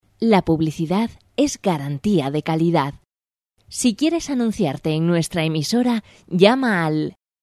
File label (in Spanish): PUBLICIDAD